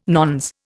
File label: "nones", Indian